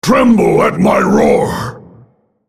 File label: attack, en US; Volibear; Character Voices - English